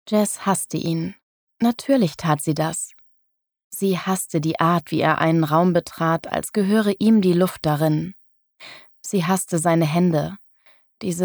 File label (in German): Hoerprobe, sprecher